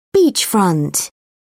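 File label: "beachfront", English, British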